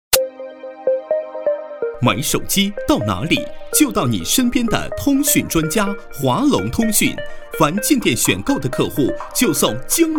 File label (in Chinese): 促销 男; 华龙通讯体验店